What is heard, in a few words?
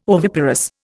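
"oviparous", Indian English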